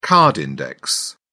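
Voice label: "card index", British English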